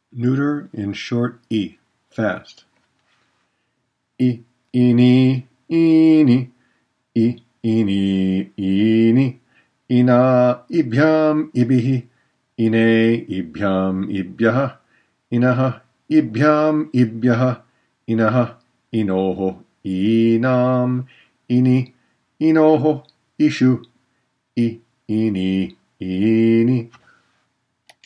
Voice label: Noun Endings Fast